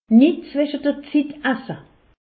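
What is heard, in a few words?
"zwische", Haut Rhin; medecine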